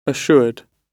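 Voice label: "assured", British English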